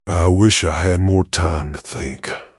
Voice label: upset; fertig classic games